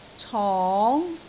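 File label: "cong2", Cantonese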